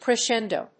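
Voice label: "crescendo", English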